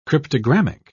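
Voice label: "cryptogrammic", British English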